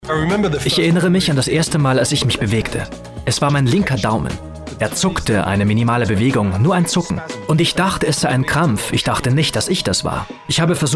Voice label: Voice Over; Doku; Wings for Life